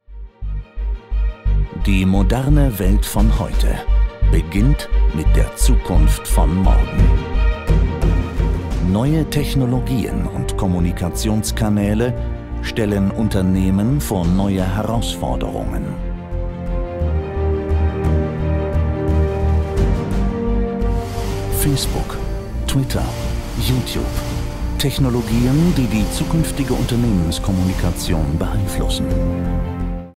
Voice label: Product Videos General public, German voice-over, Charismatic and Energetic; 3W-Publishing